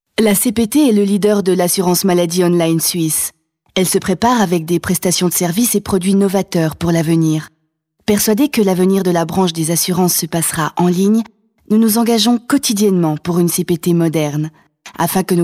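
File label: french swiss female commercial; voice demos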